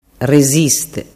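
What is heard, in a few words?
"resiste", Sicilian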